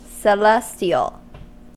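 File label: "celestial", US English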